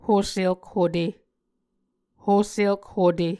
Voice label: "hoselk hute", Muscogee

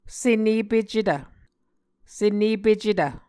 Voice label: "senepecetv", Muscogee

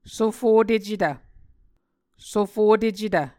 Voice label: "sofotecetv", Muscogee

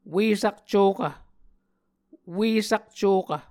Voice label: "ue sakcvokv", Muscogee

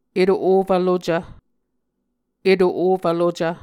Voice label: "eto-ofv-lucv", muscogee

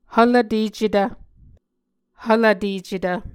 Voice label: "hvlvtecetv", Muscogee

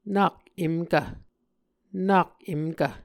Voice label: "nake emkv", Muscogee

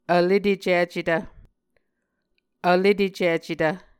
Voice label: "vletecicetv", Muscogee

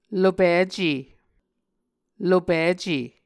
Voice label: "lopice", Muscogee

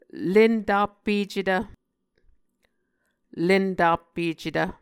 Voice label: "lentappecetv", Muscogee

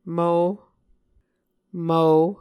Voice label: "mvo", Muscogee

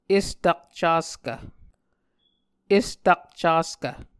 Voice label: "estakcaskv", Muscogee

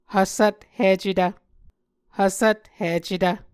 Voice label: "hvsvthicetv", Muscogee

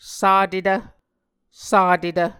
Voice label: "satetv", Muscogee

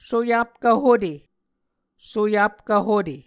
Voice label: "soyapkv hute", Muscogee

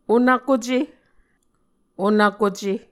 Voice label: "onvkuce", Muscogee

